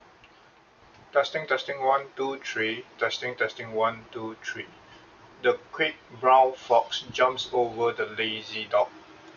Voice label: webcam voice, Hikvision DS E12 1080p; Hikvision DS E12 1080p budget webcam review